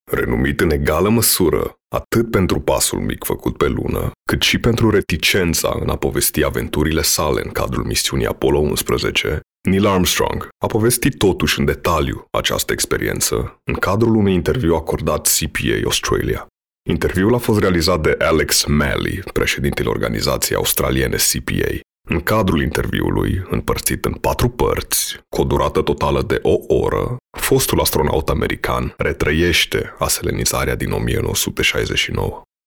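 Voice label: Romanian, male, VO, narration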